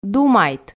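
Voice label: "думайт", Komi, female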